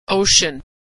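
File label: "ocean", American English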